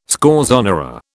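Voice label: "scorzonera", British English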